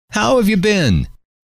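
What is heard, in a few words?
how have you been, male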